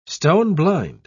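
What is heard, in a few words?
"stone-blind", English, British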